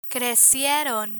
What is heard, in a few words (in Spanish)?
"crecieron", Spanish; listening comprehension; learn spanish